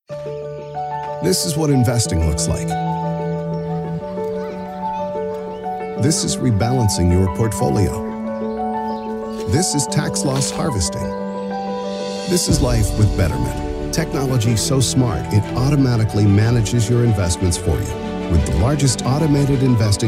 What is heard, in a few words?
commercials